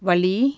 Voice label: "ache", Tamil consonants

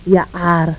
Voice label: voiceless epiglottal stop medial, agul

